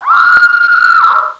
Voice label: girl

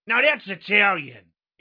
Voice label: italian

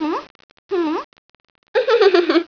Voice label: woman provoke

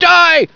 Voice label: evil die

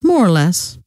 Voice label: more less, scientist female

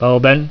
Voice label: viet infantry

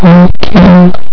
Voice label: loud okay, scientist

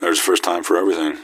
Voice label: answer, gasmask; scmod

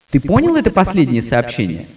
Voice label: announcer; scientist

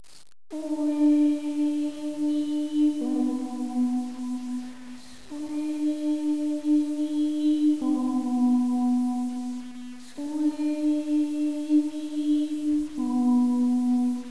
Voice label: doll voice

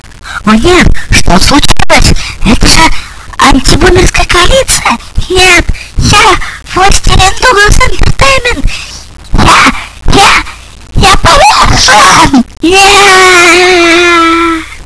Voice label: monolog; vox

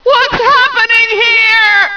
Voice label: women

